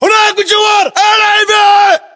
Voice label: landmark near lav, localized english battlechatter